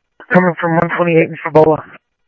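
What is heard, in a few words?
police department, scanner audio trunk; H-Scottsdale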